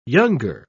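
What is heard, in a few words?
"younger", British English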